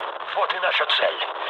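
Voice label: near waypoint, mood low, Russian; War Thunder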